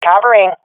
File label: covering friend, swat fem; vo; Counter Strike Model Pack; CS:GO